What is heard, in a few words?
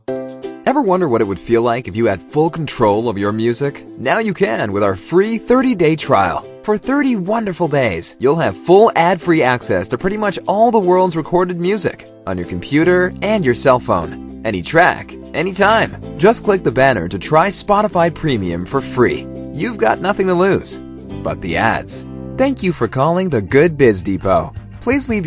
USA